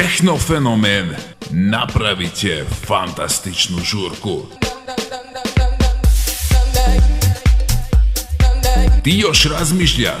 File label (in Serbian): Bali reklama; reklame za diskoteku u Senti